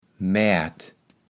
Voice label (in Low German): "Maert", kaevels platt